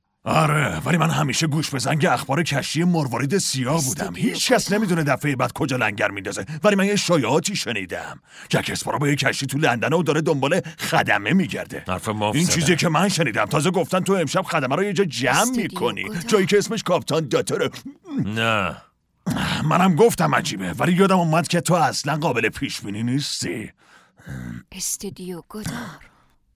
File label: dub